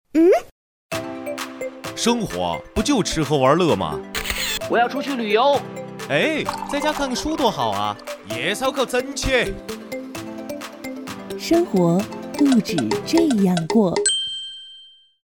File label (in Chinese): 片花; 重庆经广片花生活不止这么过